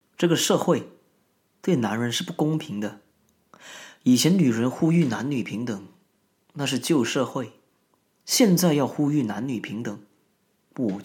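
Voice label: Chinese, General; GoLocalise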